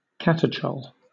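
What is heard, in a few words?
"catechol", British English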